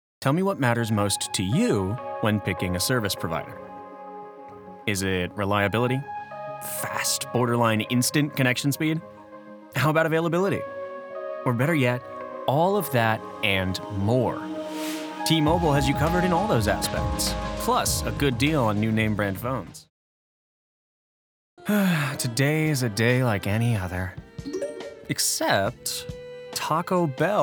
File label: Commercial